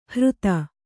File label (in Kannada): "ಹೃತ", Kannada